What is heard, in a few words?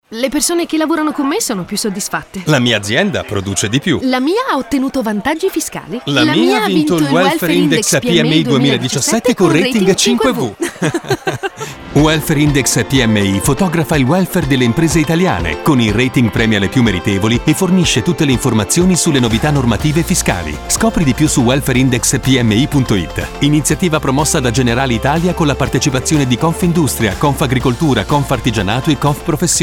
spot radio; wipmi2018